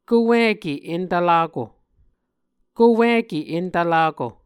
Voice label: "kowike entvlako", Muscogee; Mvskoke Words with Voicing Consonants; Martin, Jack B., and Margaret McKane Mauldin. 2023. A Dictionary of Creek/Muskogee; SIL International